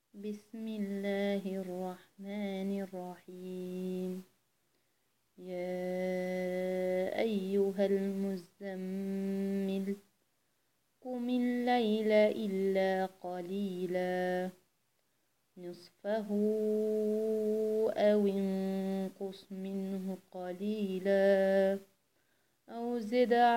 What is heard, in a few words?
voice, recitation